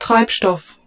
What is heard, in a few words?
German, Fuel